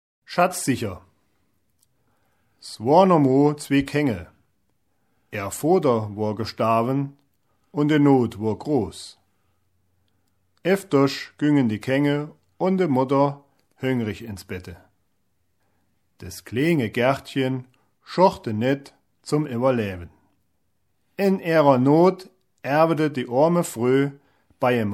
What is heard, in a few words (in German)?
Schatzsucher Nordhessisch